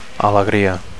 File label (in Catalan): "alegria", Catalan; (f) happiness; joy